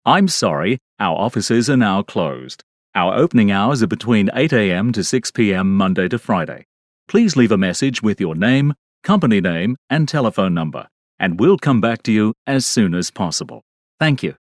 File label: english australian ivr